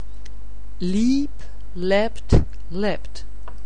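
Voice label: "leap", English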